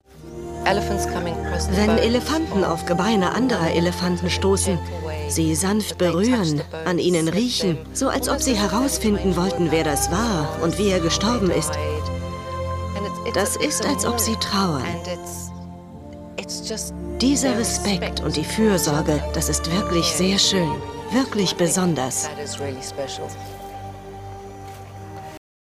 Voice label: Doku footsteps of elephants; LOFT VOICES